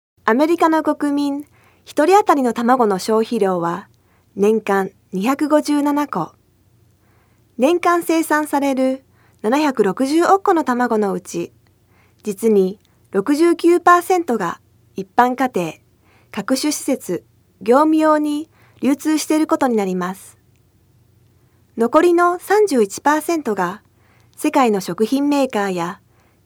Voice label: Japanese